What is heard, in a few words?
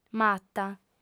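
"mata", female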